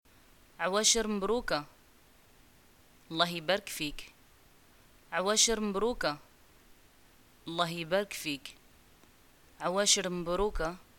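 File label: greeting eid and response, Moroccan Arabic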